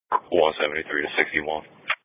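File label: Police Patrol (Dispatch)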